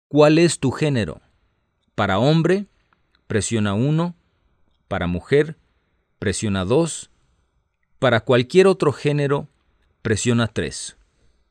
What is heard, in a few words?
Spanish, hotline